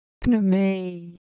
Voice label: Ewe Motion, Ideo Phones